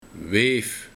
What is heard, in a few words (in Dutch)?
"Weef", Weduwe